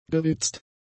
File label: "gewitzt", German; adjectives